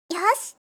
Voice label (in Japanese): "yoshi", yorokobu